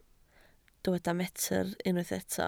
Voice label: "metr", Welsh

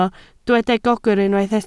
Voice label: "gogr", Welsh

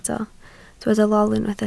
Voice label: "lol", Welsh

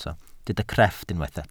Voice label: "crefft", welsh

